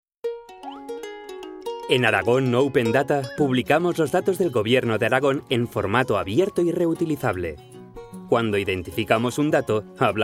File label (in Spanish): Video de Producto Amable y sonreido; Product Video - Friendly and Smiled; Aragon Open Data